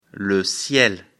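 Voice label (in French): "ciel", French; afrikalan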